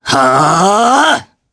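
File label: Casting, Japanese; Theo; kings raid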